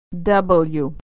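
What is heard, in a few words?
"w", American English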